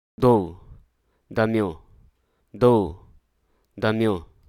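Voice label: Hruso Aka; conduct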